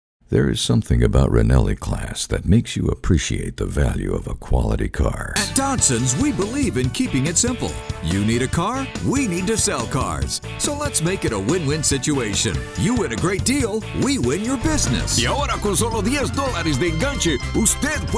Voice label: men other bilingual automotive